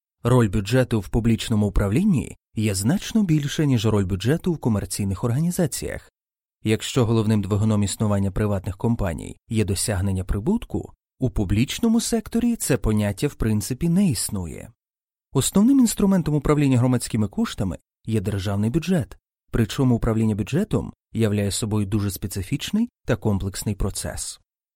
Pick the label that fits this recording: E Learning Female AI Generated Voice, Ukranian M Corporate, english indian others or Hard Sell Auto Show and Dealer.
Ukranian M Corporate